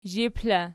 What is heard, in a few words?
"zhip", Archi